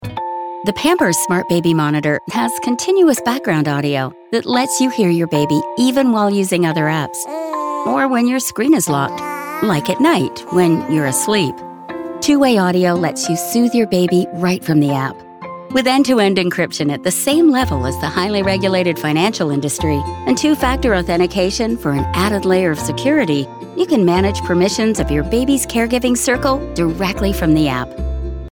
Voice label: female English neutral North American accent corporate; english canadian; explainer; voices; pampers; devine voices